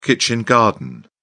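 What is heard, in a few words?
"kitchen garden", British English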